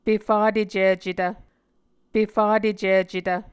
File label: "pefatecicetv", Muscogee